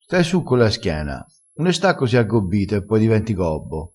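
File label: "aggobbito", Italian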